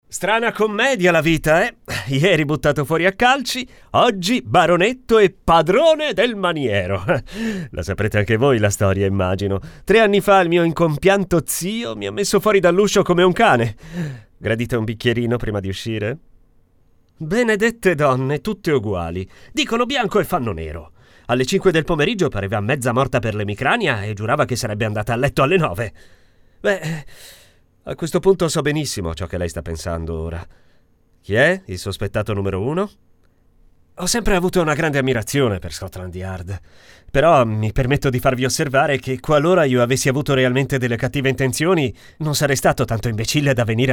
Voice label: Audiolibro; Se Morisse Mio Marito

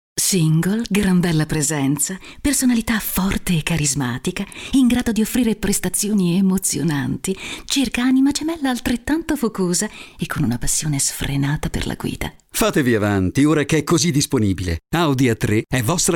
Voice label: sexy ammiccante; audi

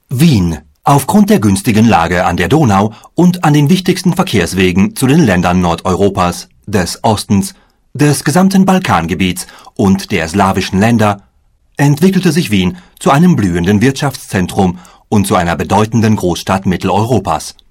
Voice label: documentario

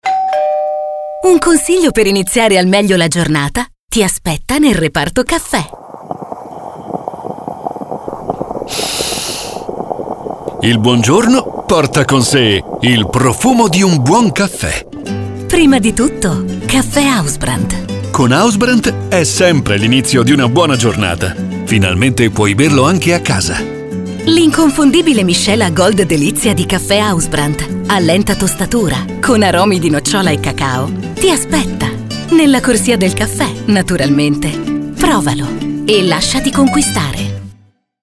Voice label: spot instore; hausbrandt

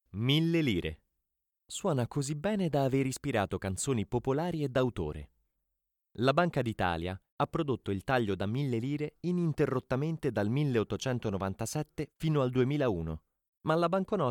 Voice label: audioguida; banca d'italia mille lire

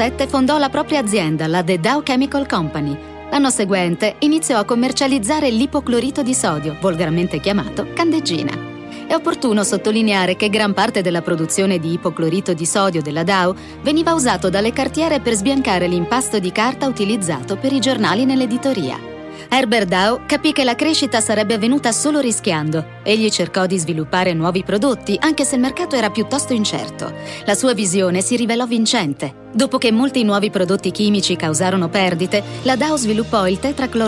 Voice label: VIDEO ISTITUZIONALI

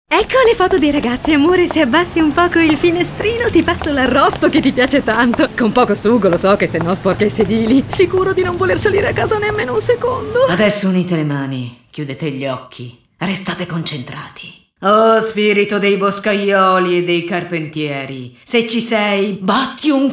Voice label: Recitato

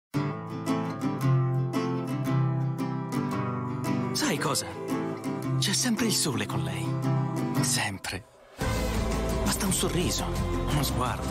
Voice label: giovane emozionale; sansweet

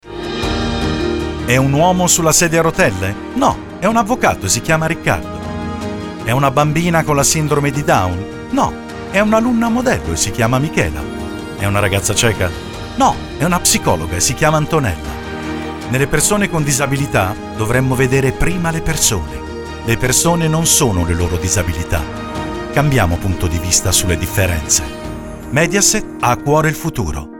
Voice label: spot radio; 3 dicembre 2023 giornata internazionale delle persone con disabilita

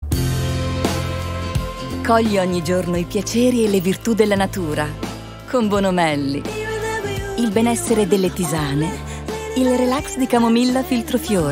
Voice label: spot tisane camomilla; bonomelli; natural house 2019

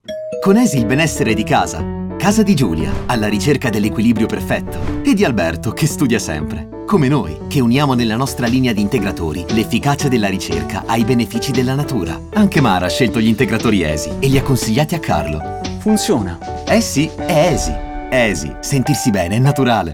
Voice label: istituzionale; esi

